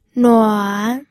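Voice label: "nuan", third tone, pinyin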